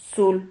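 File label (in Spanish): "zul", Spanish syllable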